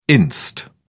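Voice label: "inst", British English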